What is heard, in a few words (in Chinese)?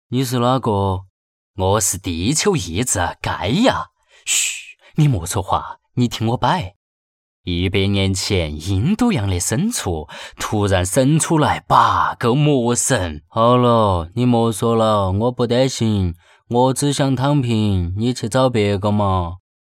四川话